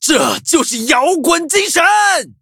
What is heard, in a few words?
skill, zh-hant; Cookie Run